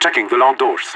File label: checking long doors, radio; LEET